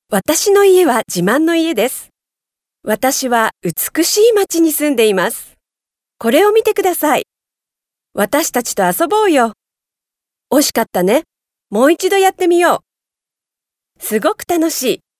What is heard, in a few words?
JP fem children